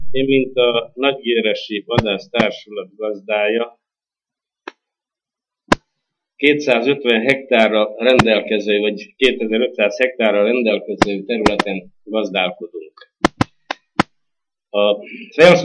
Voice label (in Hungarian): nyelvjaras gyujtesek